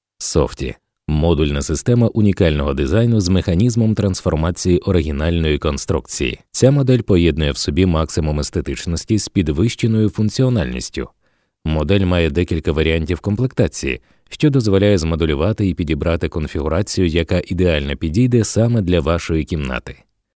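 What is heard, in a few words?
Ukrainian, male, e-learning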